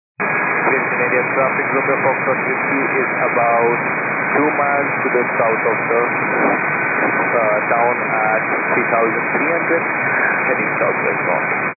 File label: 122.925 MHz; scanner radio